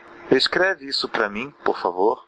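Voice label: write, Brazilian Portuguese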